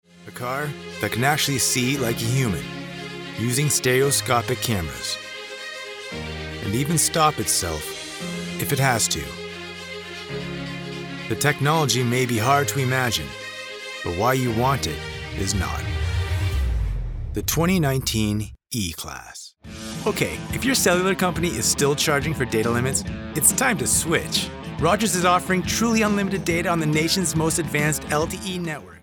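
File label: Commercial, voice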